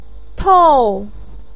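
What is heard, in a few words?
"tou4", Mandarin Chinese, pinyin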